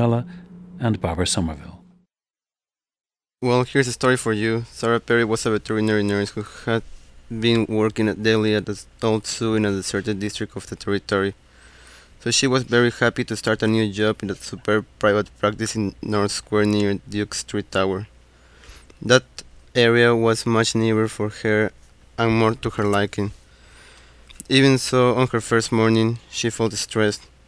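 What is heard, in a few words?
peru, dialects of English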